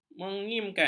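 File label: "mengimkan", Malay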